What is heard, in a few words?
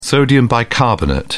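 "sodium bicarbonate", British English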